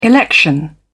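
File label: "election", British English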